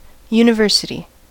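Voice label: "university", US English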